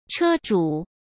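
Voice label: "车主", Mandarin Chinese; chinese flashcards; hsk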